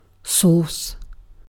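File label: "source", British English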